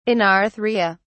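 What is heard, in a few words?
"anarthria", British English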